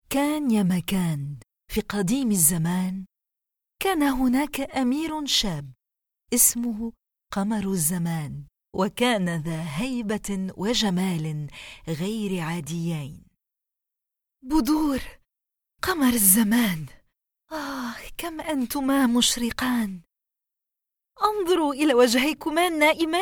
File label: arabic audiobooks